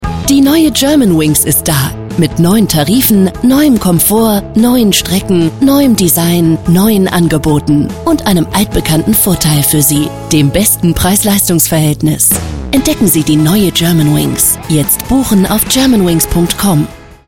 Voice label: Funkspot; germanwings

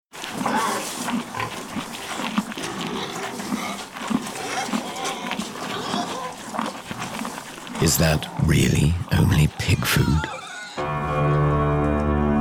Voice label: uk englisch; voxhaus hh

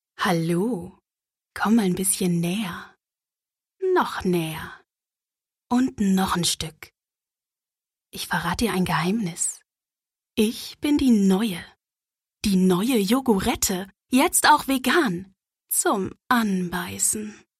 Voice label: Radio-Spot sinnlich

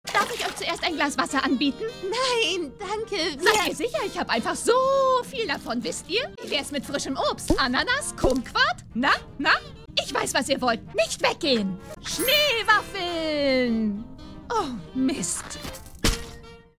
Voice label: Synchron Cartoon

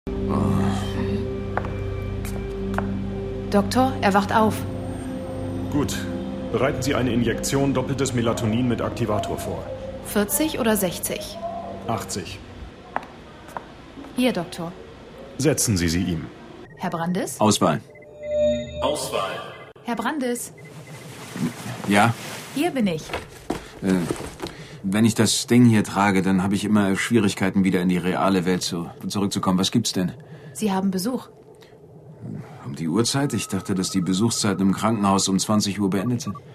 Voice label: hoerspiel; LOFT VOICES

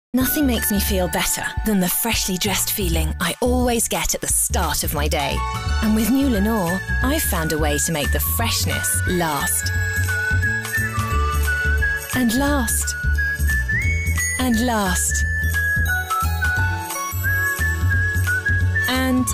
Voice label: werbespot

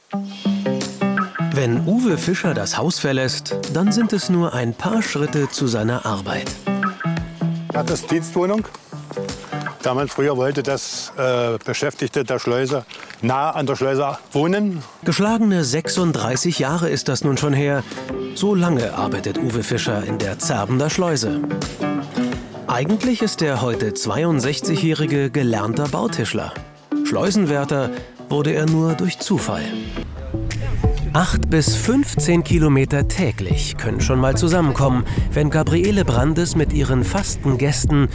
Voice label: Kommentar; MDR Sachsen-Anhalt heute

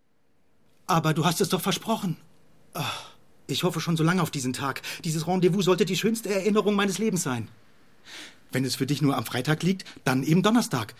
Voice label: Synchron Franz. Kömodie

